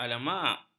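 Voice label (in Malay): "almanak", Malay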